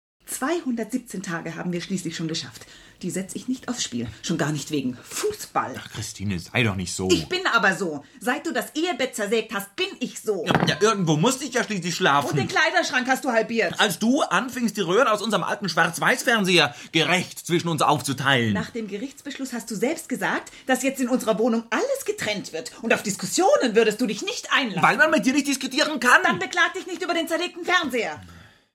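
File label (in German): Hörspiel Dialekt; Scheidung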